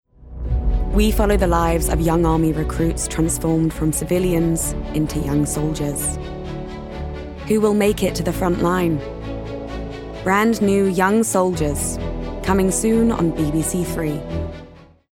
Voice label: Serious, Emotions, Informative, Promo, Army, RP ('Received Pronunciation'); Young Soldiers